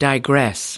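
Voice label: "digress", American English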